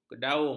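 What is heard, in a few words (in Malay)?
"kedaung", Malay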